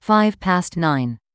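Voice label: "five past nine", English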